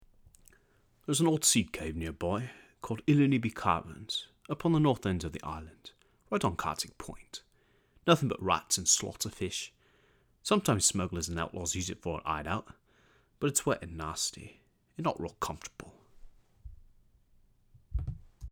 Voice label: breton it is a woman audition; skywind; i know it is a woman